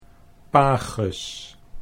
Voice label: "pages", Dutch

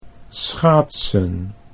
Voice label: "schaatsen", Dutch

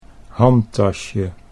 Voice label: "handtasje", Dutch

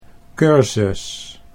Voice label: "cursus", Dutch

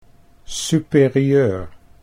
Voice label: "superieur", Dutch

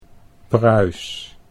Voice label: "Pruis", Dutch

